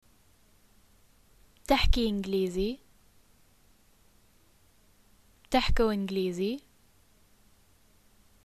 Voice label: do you speak english, arabic levantine

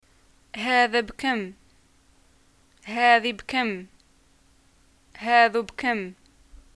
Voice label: shopping how much, arabic

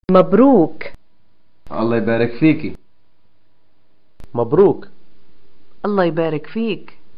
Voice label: greeting job, arabic

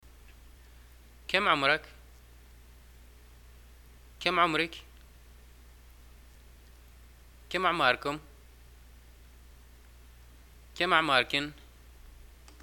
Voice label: how old r u, arabic, ly

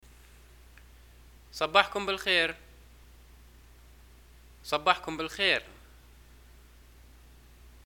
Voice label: greeting morning, arabic

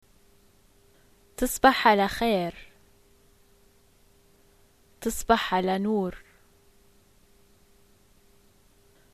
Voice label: greetings good night, arabic levantine

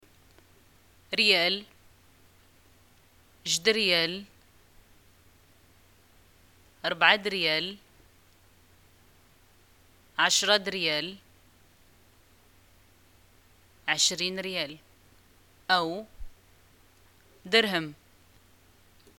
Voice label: shopping money, arabic, ma

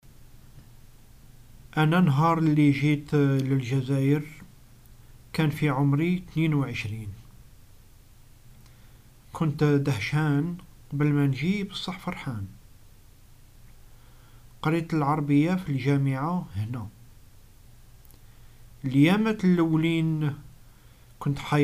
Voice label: story, arabic north african algerian dialogues

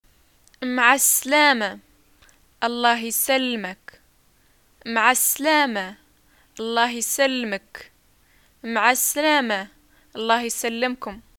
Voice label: "greeting farewell", arabic

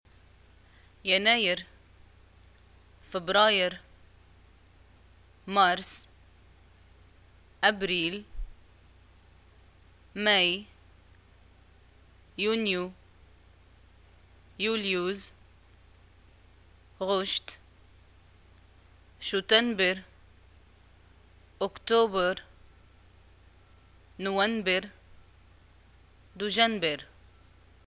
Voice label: months, arabic, ma

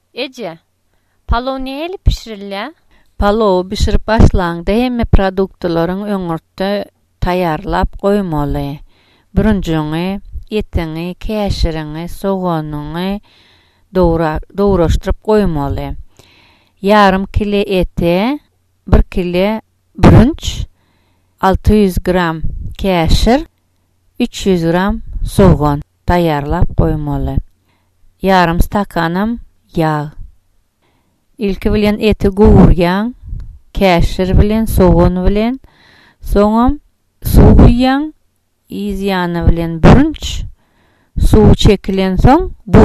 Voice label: dialogue, turkmen

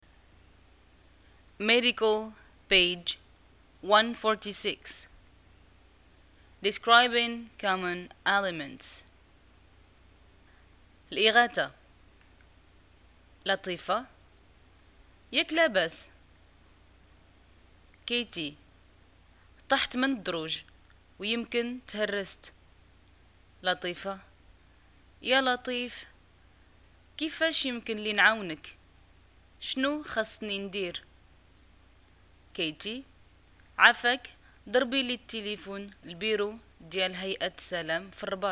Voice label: dialogue, Arabic, North African, Moroccan

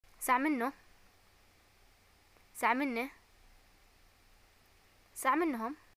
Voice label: how old r u, arabic, ye

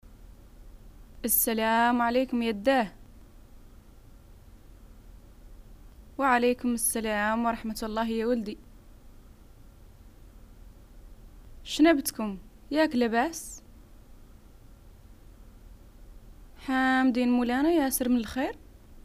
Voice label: dialogues, arabic, north african, hassaniya; encounter

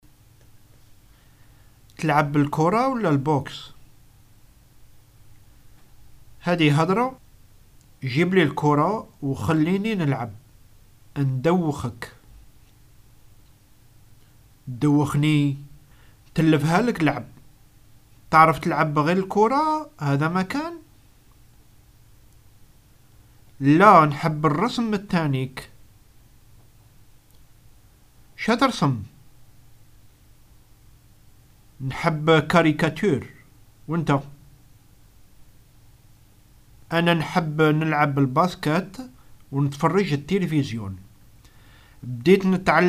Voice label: dialogues, Algerian Arabic; hobbies

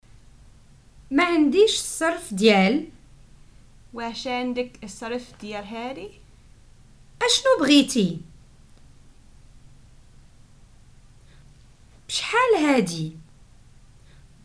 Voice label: prompts, Moroccan Arabic

